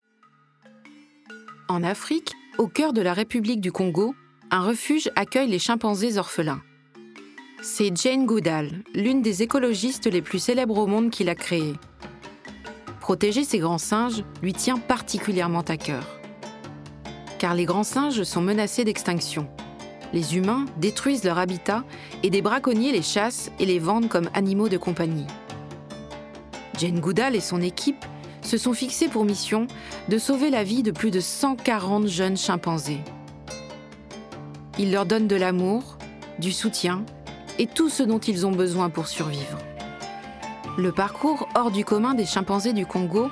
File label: NARRATION; JANE GOODALL